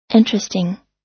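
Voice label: "interesting", American English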